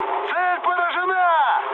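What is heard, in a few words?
Russian bomb success mood med, speech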